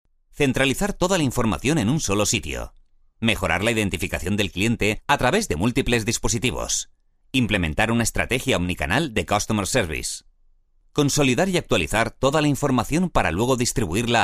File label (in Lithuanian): Ispanu balsas, vyras